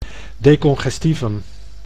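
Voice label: "decongestivum", Dutch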